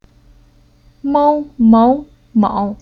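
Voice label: "Mou", Chinese syllable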